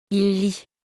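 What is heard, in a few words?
"il lit", French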